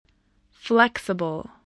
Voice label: "flexible", spelling